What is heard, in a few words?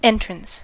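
"entrance", noun, US English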